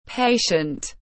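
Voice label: "patient", English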